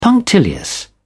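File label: "punctilious", British English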